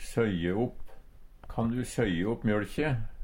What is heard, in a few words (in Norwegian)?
søye opp, Numedalsmål; koke opp